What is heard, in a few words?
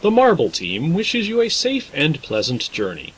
American English; marble